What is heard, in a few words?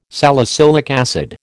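"salicylic acid", American English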